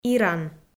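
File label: "иран", Komi, female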